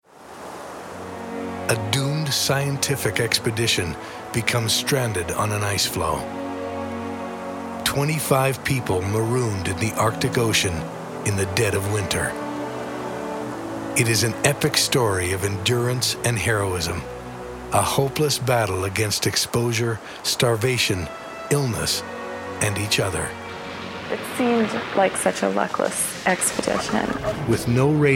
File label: DOC NARR